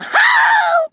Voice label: "run away", Kamikaze; Worms Armageddon